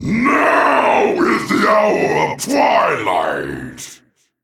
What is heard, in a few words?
Male Dragon Play; Ultraxion